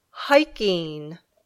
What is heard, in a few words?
"hiking", English